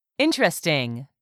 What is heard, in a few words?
"interesting", English; 3000 words